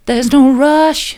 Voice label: theres no rush soft; vocal